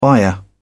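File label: "buyer", English, British English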